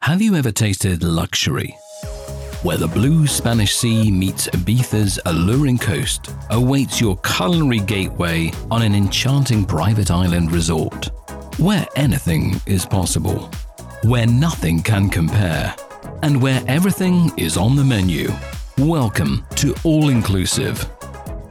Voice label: english scottish promos

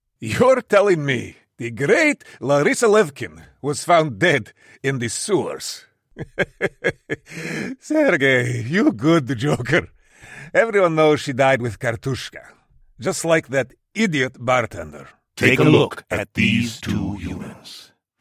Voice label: english american video games

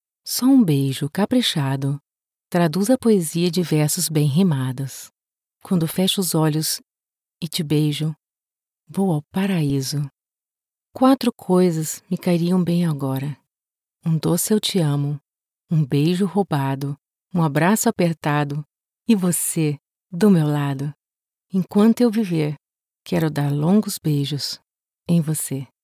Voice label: portuguese brazilian narration

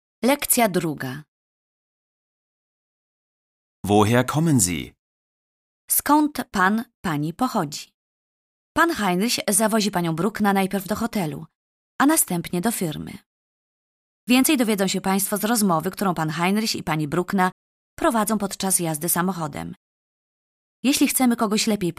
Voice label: polish documentaries

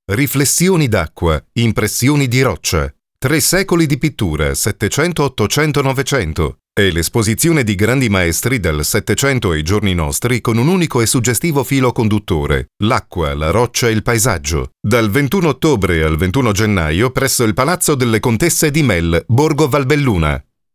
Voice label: italian promos

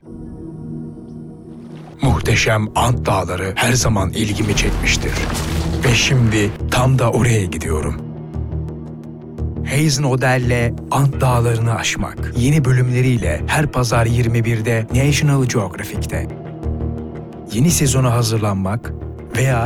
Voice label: turkish movie trailers

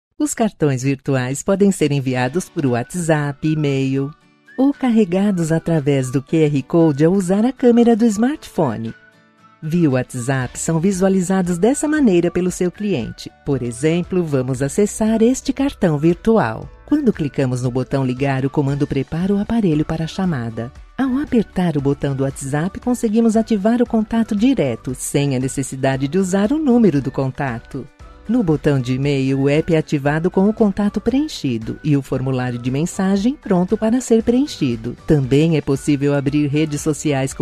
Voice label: portuguese brazilian

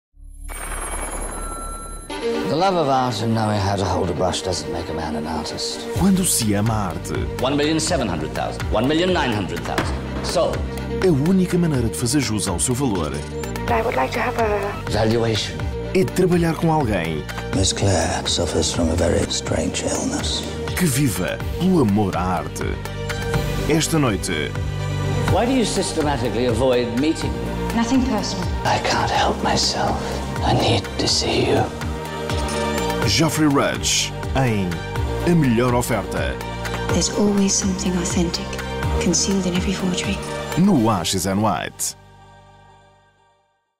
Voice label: portuguese tv shows

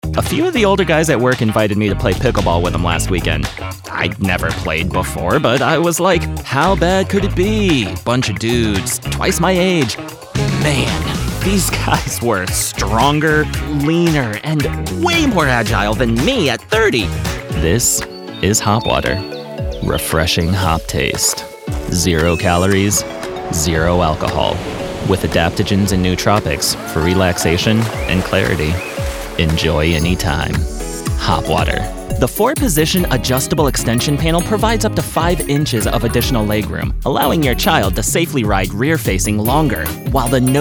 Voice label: english american commercial

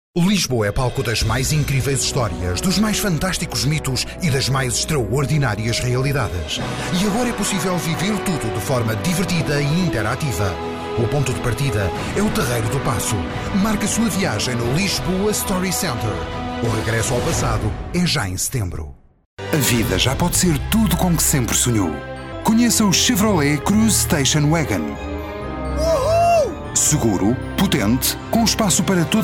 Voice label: portuguese commercial

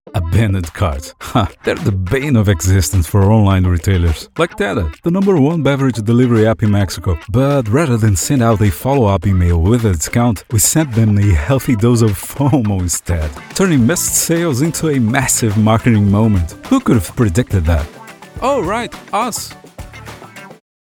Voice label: english commercial

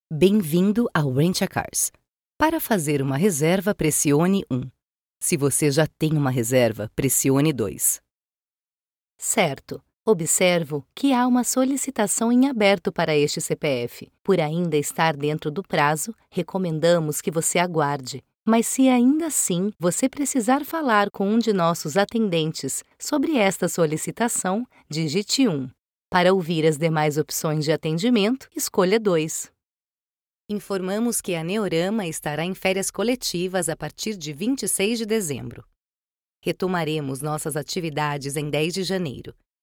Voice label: portuguese brazilian ivr

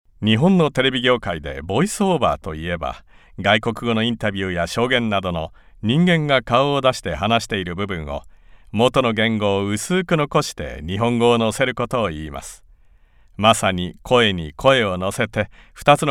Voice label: japanese corporate videos

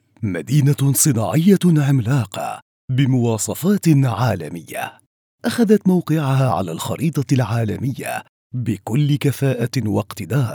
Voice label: arabic documentaries

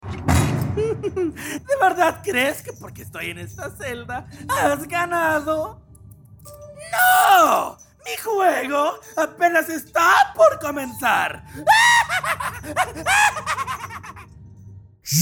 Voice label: spanish latin american video games

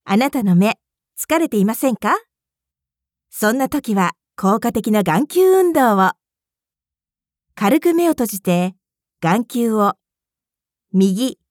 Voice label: japanese promos

